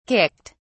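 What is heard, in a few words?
"kicked", British English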